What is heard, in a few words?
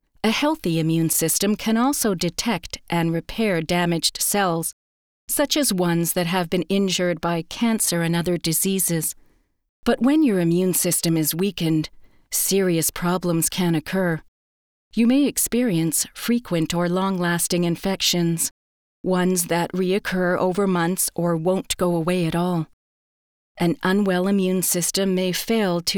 e-learning